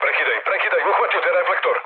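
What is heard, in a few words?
Serbian searchlite mood low, speech; War Thunder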